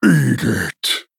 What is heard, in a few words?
Male Fork Attack